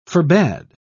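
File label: "forbad", en, uk British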